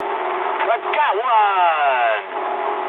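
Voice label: English player killed enemy mood high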